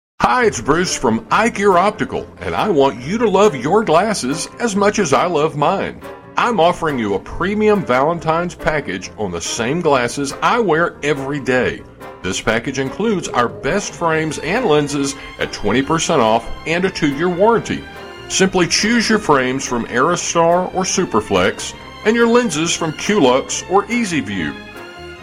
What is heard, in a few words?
Promo Ad